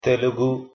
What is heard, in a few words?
pronunciation te telugu